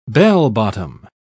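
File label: "bell-bottom", British English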